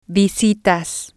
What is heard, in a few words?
"visitas", Spanish